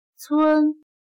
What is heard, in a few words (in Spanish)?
"村", Chino